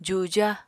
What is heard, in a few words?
"juja", Apatani